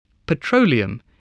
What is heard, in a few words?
"petroleum", voice, English